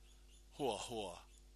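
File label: "hoahoa", Maori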